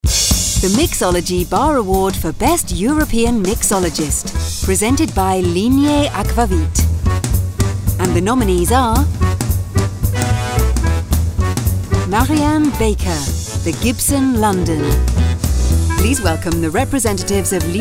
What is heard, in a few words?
VOG